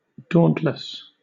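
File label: "dauntless", British English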